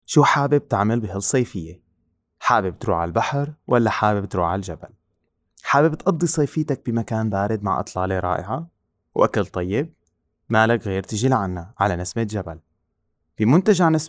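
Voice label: Arabic, male, Levantine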